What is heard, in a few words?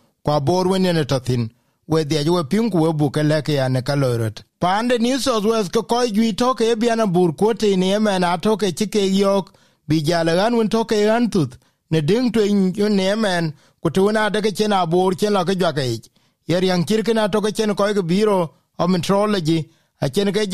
dinka; Ɣän juïc tɔ̈ keke cë boor panë NSW acennë ke kɔc yɔ̈ɔ̈k bïk jääl; SBS Dinka